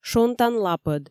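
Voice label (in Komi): шонтан лапӧд, Komi, female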